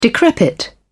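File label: "decrepit", British English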